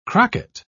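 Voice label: "crocket", British English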